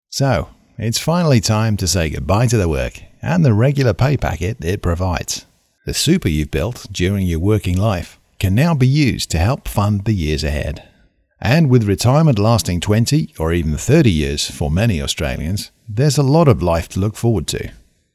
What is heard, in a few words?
Australian Voice Over